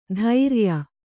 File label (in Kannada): "ಧೈರ್ಯ", Kannada